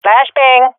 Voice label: throwing flashbang, swat fem; vo; Counter Strike Model Pack; CS:GO